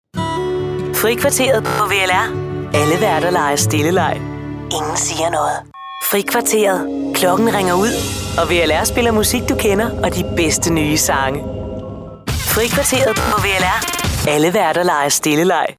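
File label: Danish radio imaging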